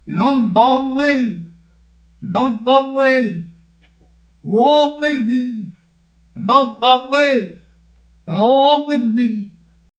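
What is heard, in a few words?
people appealing urgent, speech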